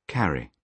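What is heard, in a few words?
"carry", British English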